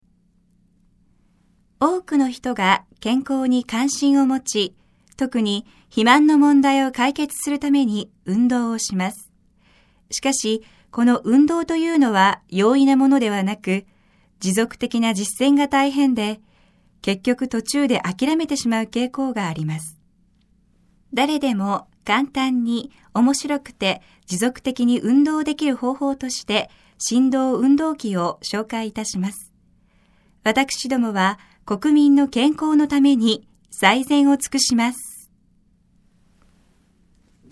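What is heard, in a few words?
japanese female narration; voice demos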